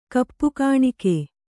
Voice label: "ಕಪ್ಪುಗಾಣಿಕೆ", Kannada

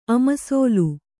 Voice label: "ಅಮಸೋಲು", Kannada

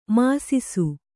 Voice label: "ಮಿಸಿಸು", Kannada

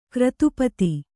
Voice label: "ಕೃತಿಪತಿ", Kannada

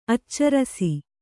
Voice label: "ಆಚರಿಸು", Kannada

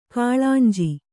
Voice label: "ಕಳೆಂಜಿ", Kannada

